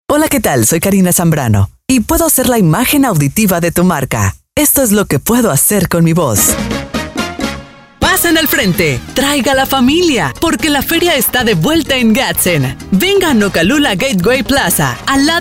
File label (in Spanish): spots, voces